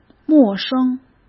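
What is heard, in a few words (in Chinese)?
"陌生", Mandarin Chinese; chinese flashcards; hsk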